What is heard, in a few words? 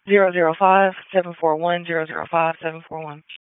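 Police Dispatch, p25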